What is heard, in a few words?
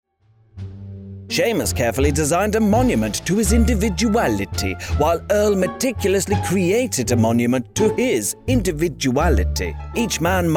commercial voiceover